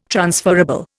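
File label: "transferable", Indian English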